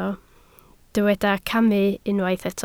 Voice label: "camu", Welsh

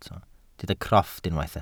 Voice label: "crofft", welsh

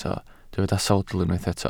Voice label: "sawdl", Welsh

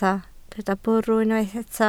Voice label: "bwrw", Welsh

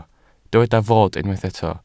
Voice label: "fod", Welsh

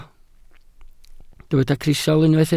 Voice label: "crisial", welsh

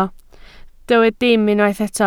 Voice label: "dim", Welsh

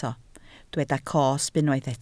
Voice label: "cosb", Welsh

